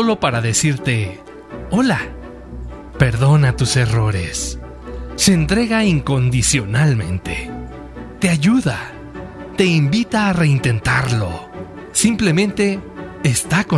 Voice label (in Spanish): locutor emotivo un amigo